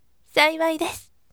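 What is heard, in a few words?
voice "I would be glad" Japanese, gentle; saiwai desu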